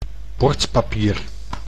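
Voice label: "bordpapier", Dutch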